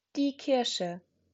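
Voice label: "Kirsche", German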